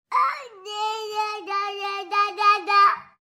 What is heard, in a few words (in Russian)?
Ребенок говорит Да Да